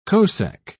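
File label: "cosec", British English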